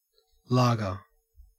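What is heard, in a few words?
"lager", Australian English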